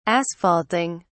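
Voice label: "asphalting", English, British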